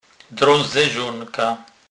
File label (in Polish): "drazdzezonka", kurpiow